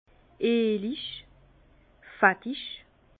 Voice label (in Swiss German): "eelig", Bas Rhin; vin; onctueux